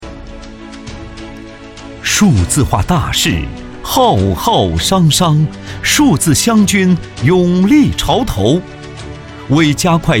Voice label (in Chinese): 男, 宣传片 激情 数字电网; 湖南数字电网